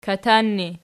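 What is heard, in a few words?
"katan" ergative, Archi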